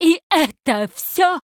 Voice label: Female Draenai Attack; Hearthstone; датамайн обновления 5.0